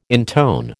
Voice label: "intone", American

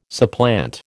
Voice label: "supplant", American

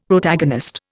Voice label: "Protagonist", Indian; Dictionary

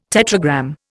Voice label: "tetragram", Indian

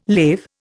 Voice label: "lave", Indian

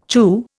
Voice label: "chew", Indian

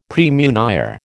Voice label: "praemunire", American

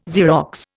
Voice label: "Xerox", Indian

